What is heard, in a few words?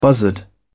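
"buzzard", English, British English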